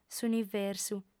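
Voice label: "s'universu", Sardinian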